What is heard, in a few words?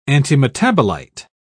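"antimetabolite", British English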